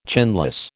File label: "chinless", American